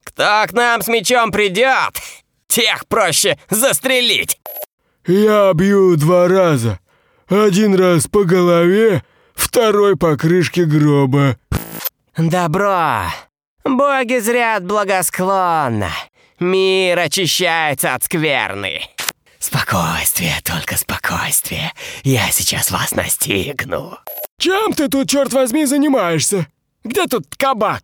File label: russian animation